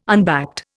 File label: "unbacked", Indian